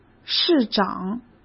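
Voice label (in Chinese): "市长", Mandarin Chinese; hsk; chinese-flashcards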